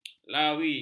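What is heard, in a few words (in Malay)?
"lawi", Malay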